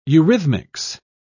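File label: "eurythmics", British English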